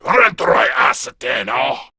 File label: Attack, Male